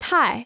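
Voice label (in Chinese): "太" Chinese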